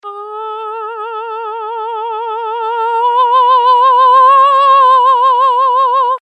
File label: synthesized Voice